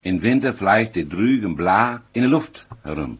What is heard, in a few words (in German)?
westfalisch